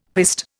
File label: "piste", Indian; Dictionary